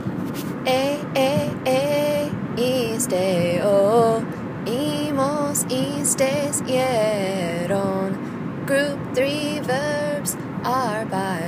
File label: irregular preterite endings chant